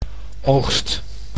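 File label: "oogst", Dutch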